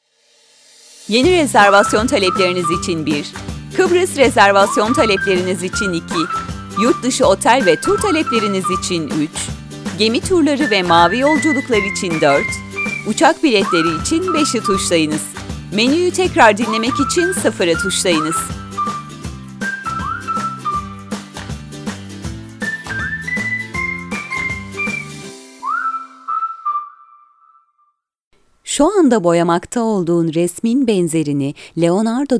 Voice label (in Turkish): KADIN